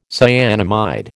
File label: "cyanamide", American